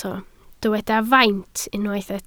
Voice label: "faint", Welsh

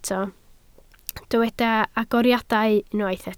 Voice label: "agoriadau", Welsh

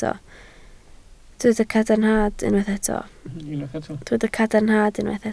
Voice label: "cadarnhad", Welsh

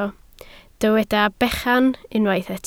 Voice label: "bechan", Welsh

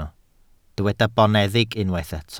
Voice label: "boneddig", welsh

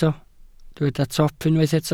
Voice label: "top", Welsh

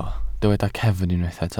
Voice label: "cefn", Welsh

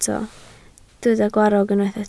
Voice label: "gwarrog", Welsh

